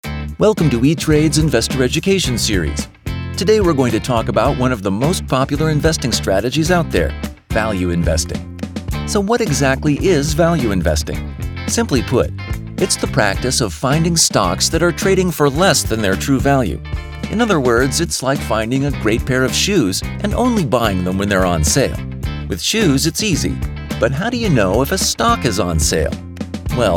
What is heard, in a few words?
eLearning; ETrade